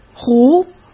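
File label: "湖", Mandarin Chinese; chinese-flashcards; hsk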